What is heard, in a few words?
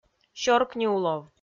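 "сёркниулов" Komi, female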